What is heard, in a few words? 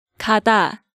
"Gada", Korean